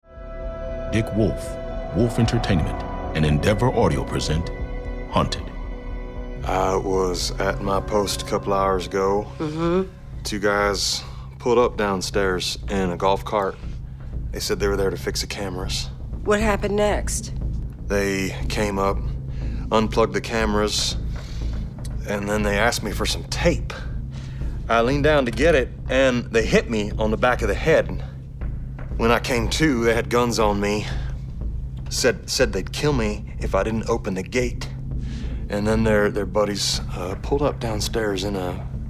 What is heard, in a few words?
Narration; promo